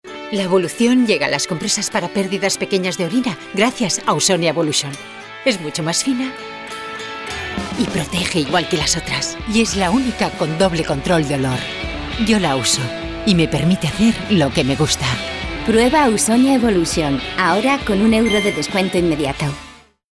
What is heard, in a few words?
female spanish femenine comercial; ideasonora